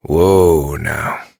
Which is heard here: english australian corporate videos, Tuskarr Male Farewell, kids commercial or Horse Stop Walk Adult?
Horse Stop Walk Adult